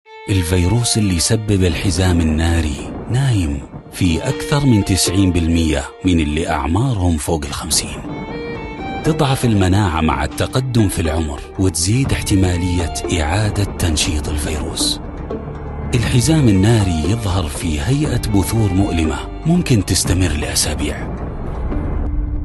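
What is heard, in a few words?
Arabic, narrative; GoLocalise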